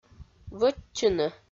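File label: "вӧччыны", Komi, female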